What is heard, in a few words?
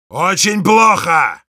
negative vocalization, ru